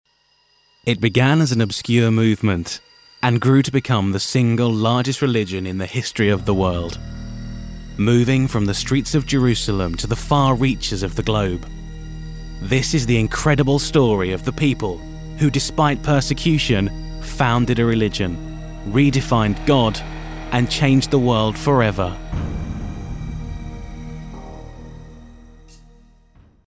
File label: Documentary Narration Voiceover